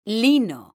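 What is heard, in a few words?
"lino", Spanish, female; mekanta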